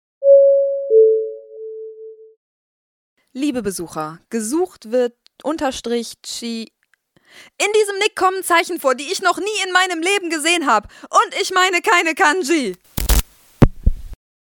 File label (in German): durchsage